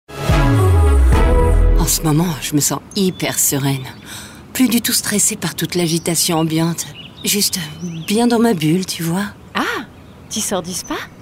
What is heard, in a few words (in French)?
pub comedie; citroen